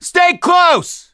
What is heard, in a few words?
misc directional, voice; gambler